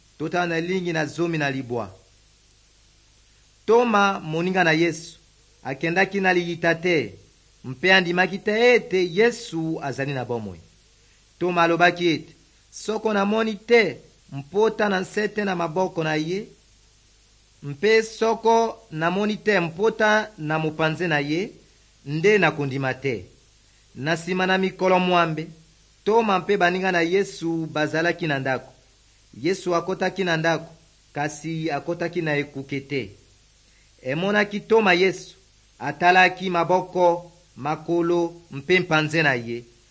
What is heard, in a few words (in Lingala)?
good news lingala west, drama; bible; rdc